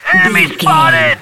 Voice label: radio voice male soldier enemy spotted